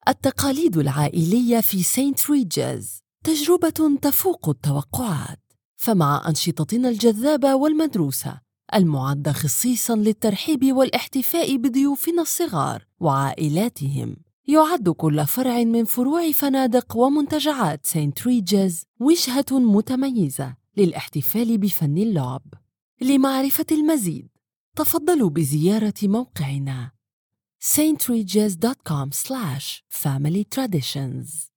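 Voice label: Arabic IVR; St Regis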